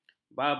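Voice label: "babu", Malay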